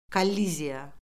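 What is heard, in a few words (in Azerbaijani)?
"kolliziya", Azerbaijani; Orfoqrafiya Lüğəti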